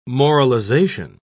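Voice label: "moralization", British English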